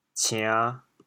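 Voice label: "cian5", Teochew, chaoyang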